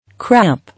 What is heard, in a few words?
"Cramp", English